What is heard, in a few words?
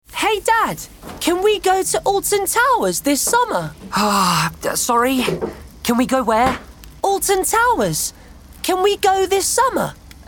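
Animation, Light tone. Young, light & peppy. London.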